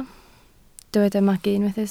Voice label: "magu", Welsh

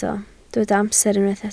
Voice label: "amser", Welsh

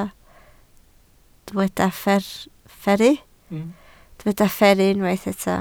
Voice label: "fferru", Welsh

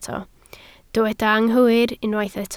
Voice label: "anghywir", Welsh

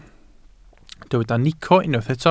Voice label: "nico", Welsh

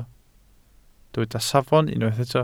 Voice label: "safon", Welsh

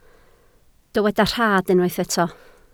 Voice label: "rhad", Welsh

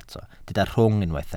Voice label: "rhwng", Welsh

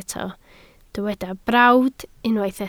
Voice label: "brawd", Welsh

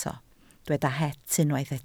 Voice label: "het" Welsh

